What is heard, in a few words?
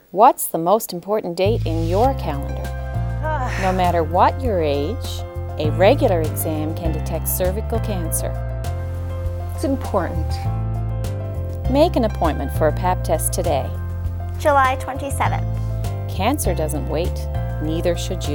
Radio Ad; provincial cervical screening initiatives program